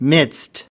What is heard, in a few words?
"midst", us